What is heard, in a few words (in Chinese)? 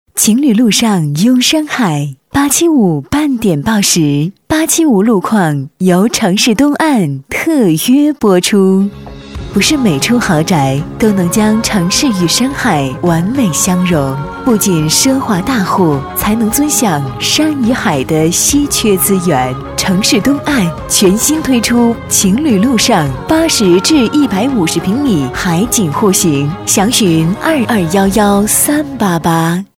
大气温馨; 城市东岸